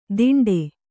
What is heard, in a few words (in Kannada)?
"ತಿಂಡೆ", Kannada